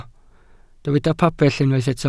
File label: "pabell", Welsh